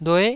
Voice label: "lue9", Taiwanese syllable